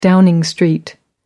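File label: "downing street", British English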